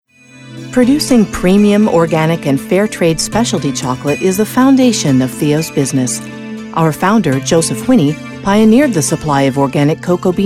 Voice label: Corporate